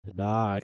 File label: reverse speech